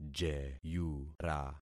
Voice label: "jeyura", concat syllables; Affixing experiment